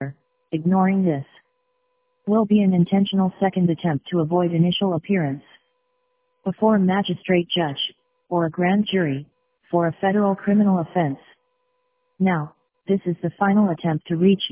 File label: voicemail